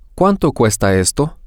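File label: how much is, spanish, male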